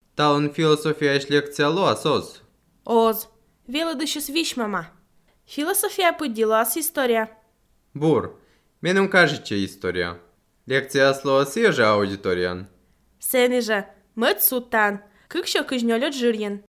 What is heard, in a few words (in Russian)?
dialog